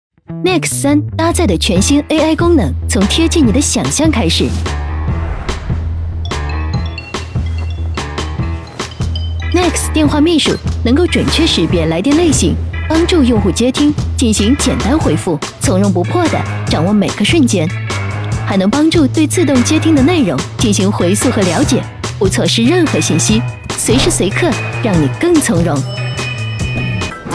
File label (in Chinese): 女 广告 时尚明快; Vivo-Nex3-5G